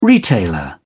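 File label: "retailer", English, British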